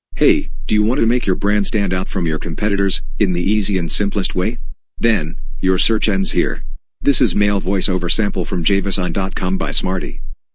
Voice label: AI English Male Voiceover Sample; Smarty